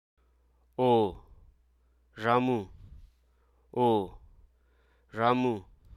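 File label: "cereal", Hruso Aka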